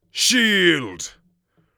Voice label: shield, mage voice; Mage Voice Pack